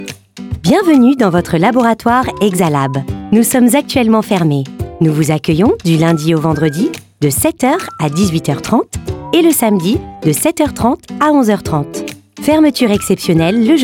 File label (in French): Horaires Bordeaux Bastide, telephone directory (French); Exalab